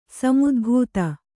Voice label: "ಸಮುದ್ಭೂತ", Kannada